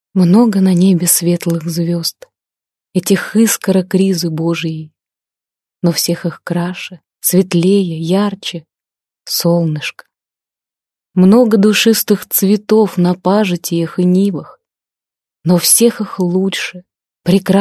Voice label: russian female

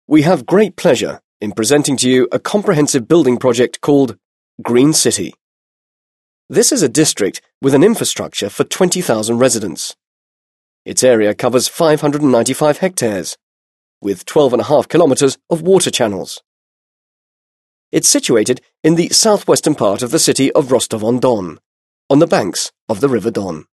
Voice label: british english male

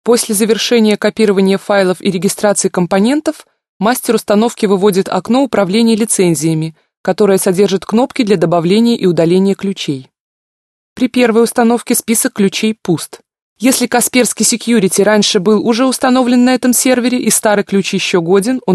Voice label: russian female

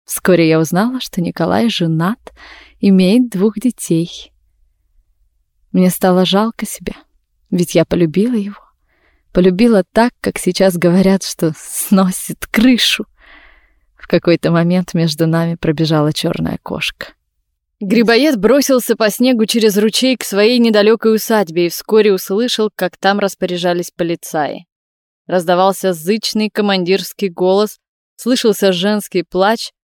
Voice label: rus

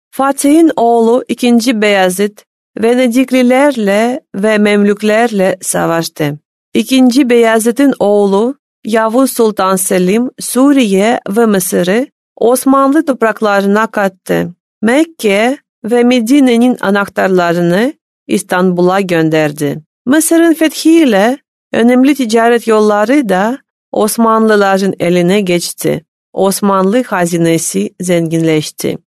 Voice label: turkey female

